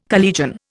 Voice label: "collegian", Indian